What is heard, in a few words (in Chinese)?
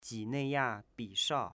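"Jineiya Bishao", Chinese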